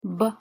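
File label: "б", Russian pronunciation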